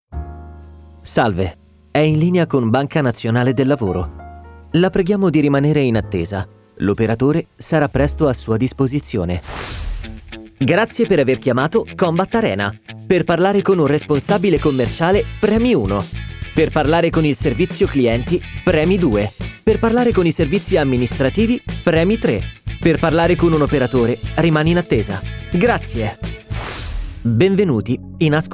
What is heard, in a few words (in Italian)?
Segreteria Telefonica